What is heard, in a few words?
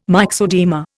"myxoedema", Indian